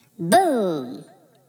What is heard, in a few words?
voice boom